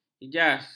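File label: "ijas", Malay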